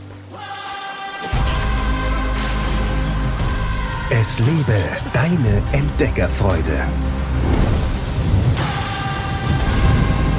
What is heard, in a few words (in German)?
Imagefilm, maenner; Dethleffs